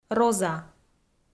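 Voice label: "роза", Komi, female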